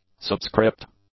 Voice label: "subscript", English; adjectives

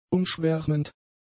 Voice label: "umschwärmend", German; adjectives

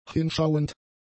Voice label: "hinschauend", German; adjectives

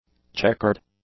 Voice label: "checkered", English; adjectives

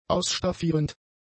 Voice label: "ausstaffierend", German; adjectives

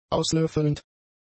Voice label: "auslöffelnd", German; adjectives

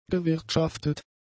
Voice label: "gewirtschaftet", German; adjectives

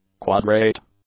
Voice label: "quadrate", English; adjectives

